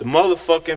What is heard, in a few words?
"motherfucking", expletives